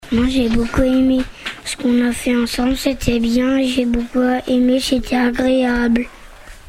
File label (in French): témoignage enfant